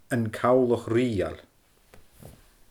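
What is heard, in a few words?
"An Cabhlach Rìoghail", Scottish Gaelic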